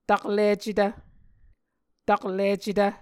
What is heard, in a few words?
"taklicetv", Muscogee